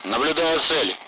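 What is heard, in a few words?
Speech Russian; Allied Flight; contact target; DCS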